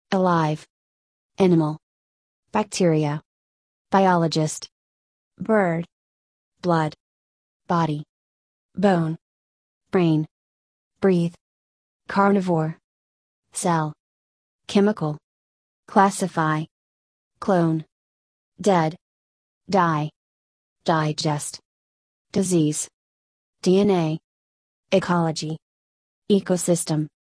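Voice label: American; biology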